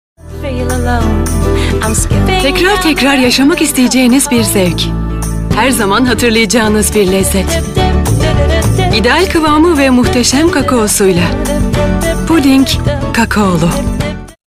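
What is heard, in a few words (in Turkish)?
reklam, Turkish; kakaolu puding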